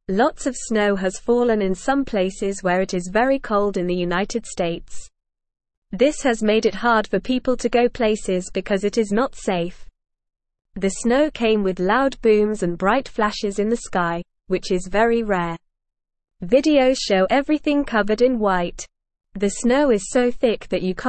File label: English Newsroom Beginner NORMAL Reading; Lots of Snow Makes it Hard to Go Places